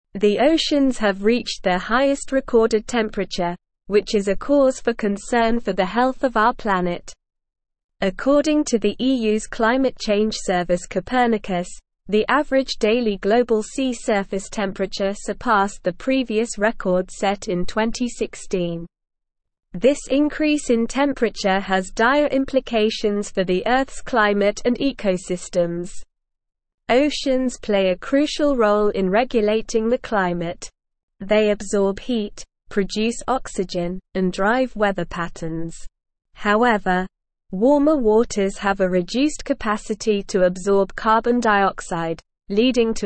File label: English Newsroom Advanced SLOW Reading; Oceans Reach Highest Recorded Temperature Worsening Climate